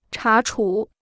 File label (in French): "查处", chinois